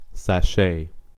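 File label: "sashay", American English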